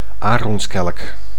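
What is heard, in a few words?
"aaronskelk", Dutch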